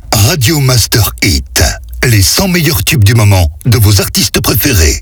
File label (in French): Voix off Accap Medium; Radio Master; 100 meilleurs Hits